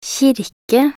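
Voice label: "kirke", Norwegian Bokmål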